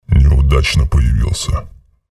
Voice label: chatter negative; visor; respawn